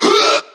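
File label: "huh", vocal sample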